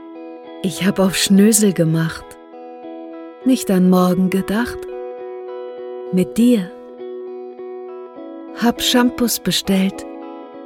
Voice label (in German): werbung freude ec karte